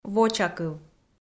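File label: "вочакыв", Komi, female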